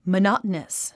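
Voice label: "monotonous", English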